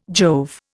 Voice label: "Jove", Indian

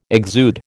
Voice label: "exude", American

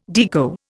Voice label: "Deco", Indian

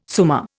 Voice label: "summa", Indian

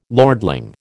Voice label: "lordling", American

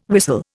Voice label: "whistle", Indian

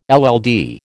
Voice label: "LL D", American

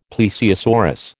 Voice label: "plesiosaurus", American

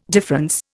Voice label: "difference", Indian

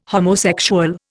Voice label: "homosexual", Indian

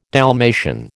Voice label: "Dalmatian", American

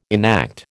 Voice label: "enact", American

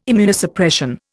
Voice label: "Immunosuppression", Indian

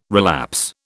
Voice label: "relapse", British

